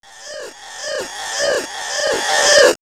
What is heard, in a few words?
Man Machine "Choke", robot vox; X-Static Goldmine